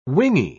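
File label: "wingy", British English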